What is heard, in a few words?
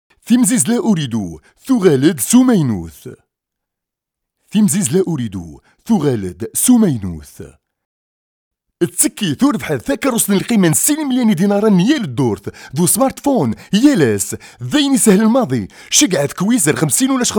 tamazight male